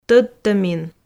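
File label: "тӧдтӧмин", Komi, female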